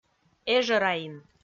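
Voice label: "эжӧраин", Komi, female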